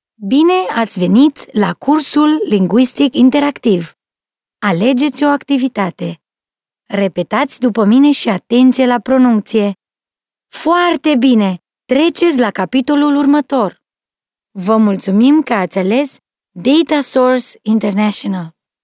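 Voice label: Romanian female corporate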